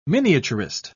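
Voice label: "miniaturist", British English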